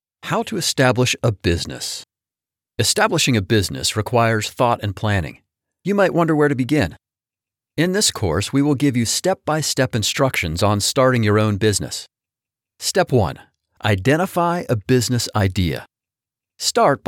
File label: eLearning